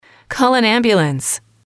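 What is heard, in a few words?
"call an ambulance", female, English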